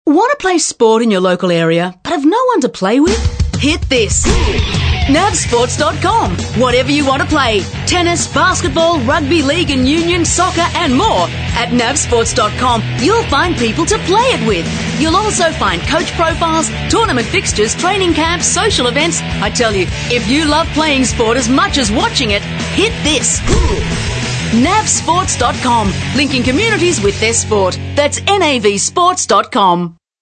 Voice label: Audio ad female; Navsports